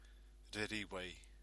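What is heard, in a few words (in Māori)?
"reriwei", Māori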